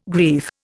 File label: "grief", Indian